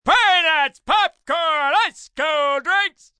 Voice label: Vendor Peanuts, Vendor, male, stadium vender, yelling; VoiceBox